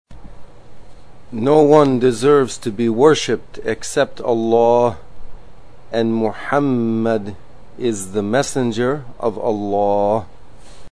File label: shahaadah english